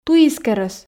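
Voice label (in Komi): "туискерӧс", Komi, female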